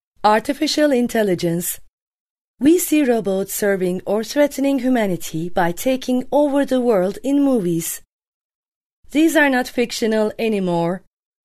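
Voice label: Turkish Male English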